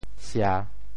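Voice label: "sia3", Teochew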